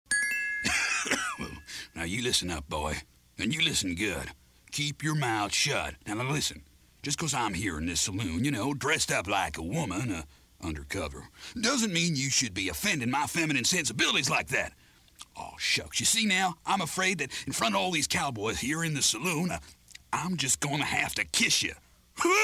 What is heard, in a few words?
Tough Cowboy, cartoon; animation